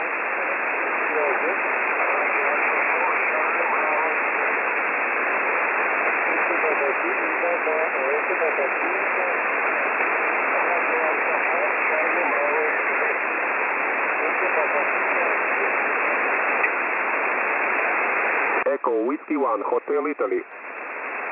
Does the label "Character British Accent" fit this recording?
No